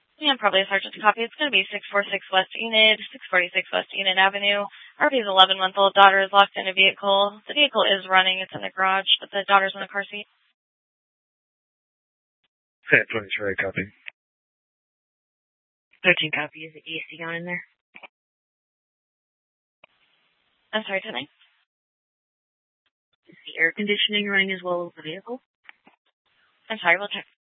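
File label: Police Department Dispatch, scanner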